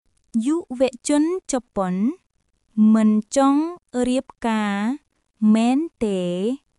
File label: Khmer, cambodian; クメール語（カンボジア語）の「悪口」フレーズ; insults complaints